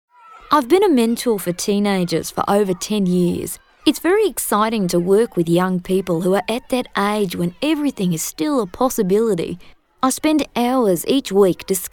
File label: International Accents